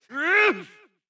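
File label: soldier voice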